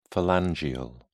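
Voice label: "phalangeal", English, British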